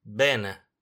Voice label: "bene", Italian